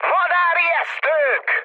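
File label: Hungarian enemy detected mood high, speech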